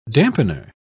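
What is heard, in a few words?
"dampener", British English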